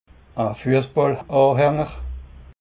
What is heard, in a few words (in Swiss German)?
"fussballanhaenger", alsace